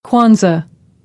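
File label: "Kwanzaa", American English; AmericanBritish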